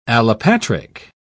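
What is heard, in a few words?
"allopatric", British English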